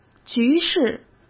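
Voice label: "局势", Mandarin Chinese; chinese-flashcards; hsk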